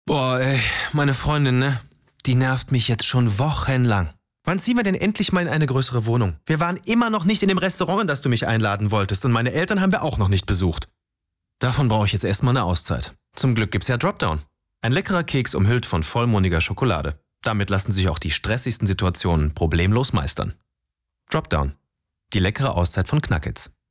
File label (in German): werbung, dropdown